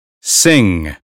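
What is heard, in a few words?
"sing", American English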